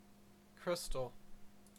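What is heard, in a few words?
"crystal", Canadian English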